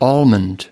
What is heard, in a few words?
"almond", us